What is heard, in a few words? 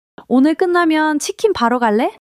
excitement; monologue